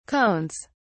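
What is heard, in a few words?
"cones", British English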